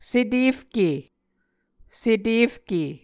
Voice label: "setefke", Muscogee

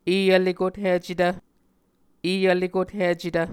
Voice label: "evlekothicetv", muscogee

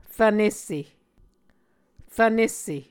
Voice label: "rvnesse", Muscogee

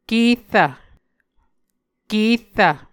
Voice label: "kerrv", Muscogee

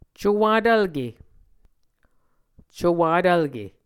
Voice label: "cowatvlke", muscogee

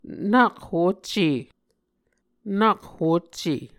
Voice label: "nak hocce", Muscogee; Mvskoke Words with Repeated Consonants; A Dictionary of Creek/Muskogee; SIL International

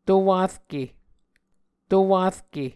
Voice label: "to-warke", muscogee

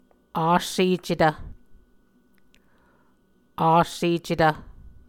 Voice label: "assecetv", muscogee

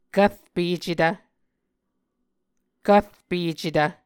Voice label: "kvrpecetv", Muscogee

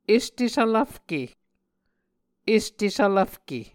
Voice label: "este svlvfke", Muscogee

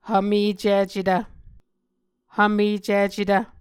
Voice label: "hvmecicetv", muscogee

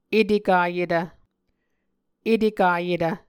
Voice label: "etekayetv", Muscogee

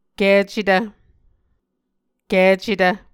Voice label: "kicetv", Muscogee

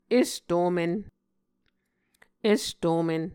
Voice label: "estomen", Muscogee

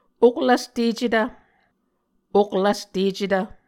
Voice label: "oklvstecetv", Muscogee

